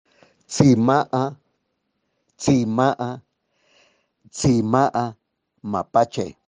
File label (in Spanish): mapache mixteco; vocabulario palabras en mixteco